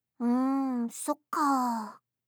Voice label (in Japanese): "sokka", voice, reaction